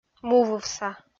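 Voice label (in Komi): "мувывса", Komi, female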